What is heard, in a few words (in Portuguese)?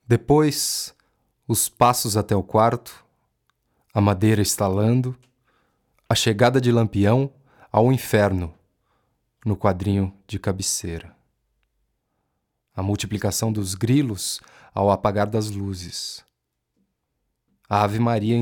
NARRACAO